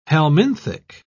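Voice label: "helminthic", British English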